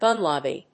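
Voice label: "gun lobby", English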